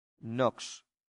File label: "nox", latin; santillana